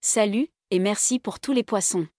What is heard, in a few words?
so long, French